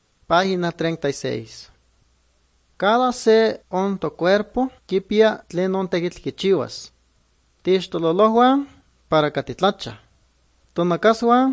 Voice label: good news, nahuatl guerrero chilapa

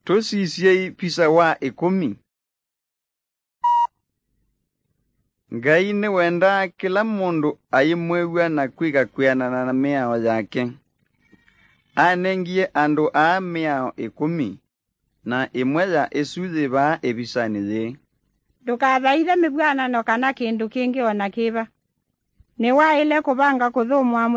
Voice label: kikamba drama; good news; bible

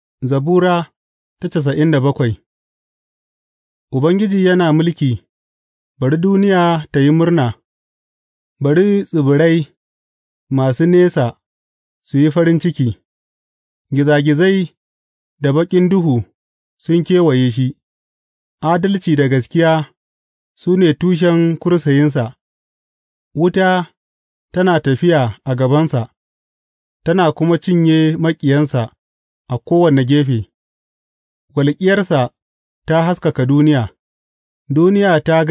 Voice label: hausa bible drama, psalms; hausa bsn nt drama

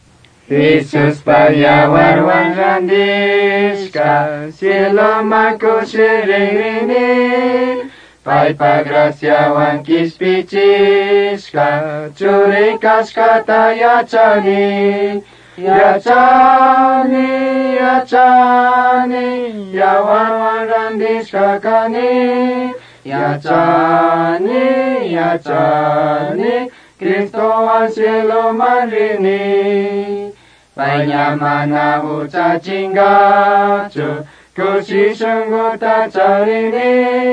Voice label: words of life quichua chimborazo

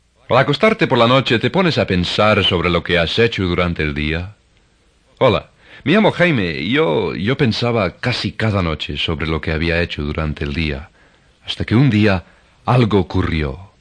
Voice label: words of life spanish castellano

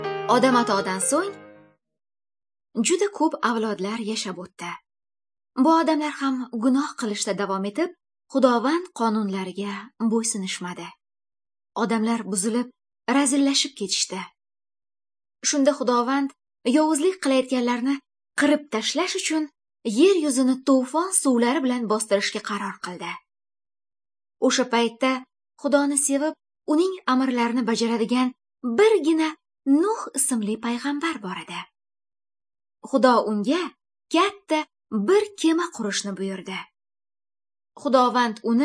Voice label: good news female uzbek northern

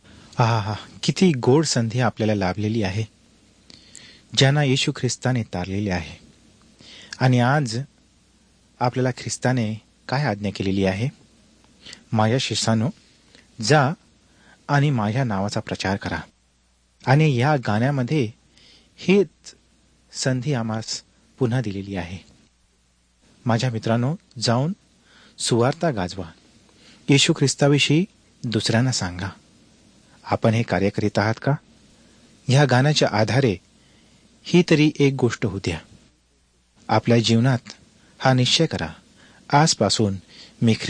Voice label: words of life marathi, drama; world bible translation center nt drama

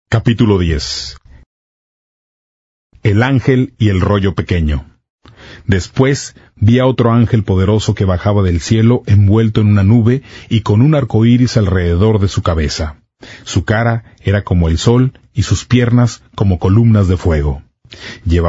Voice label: spanish, non-drama; apocalipsis 10

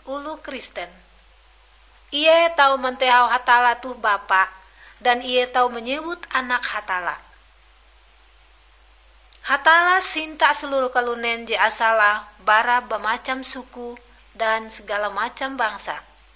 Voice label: kabar bahalap good news ngaju, drama

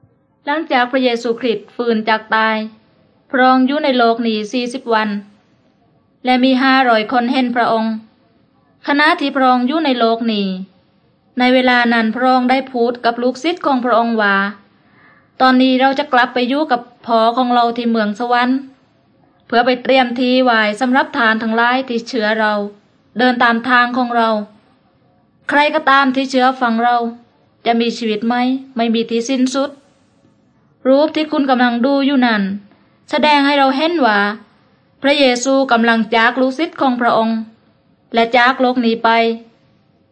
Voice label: words of life creation to christ thai southern

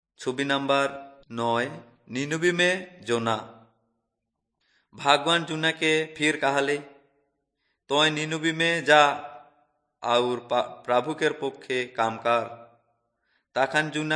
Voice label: urao, drama; kurukh fmp nt drama; on trial for god

